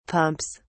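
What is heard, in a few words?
"pumps", British English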